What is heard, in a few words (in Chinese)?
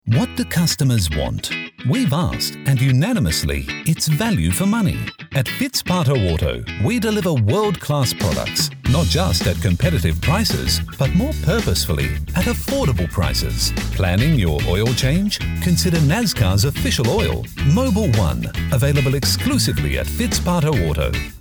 外籍英男 激情活力